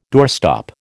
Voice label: "doorstop", American